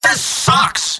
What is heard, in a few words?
scout jeers; mvm